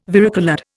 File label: "varicoloured", Indian